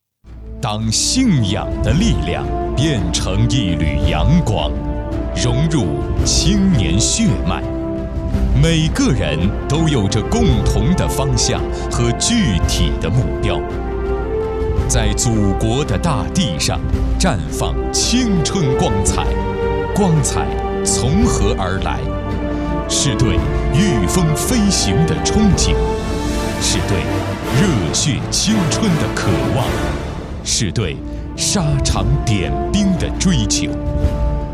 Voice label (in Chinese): 征兵 公益 宣传